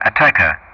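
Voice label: "Ataka", English; Ka-50; Player Weapon; DCS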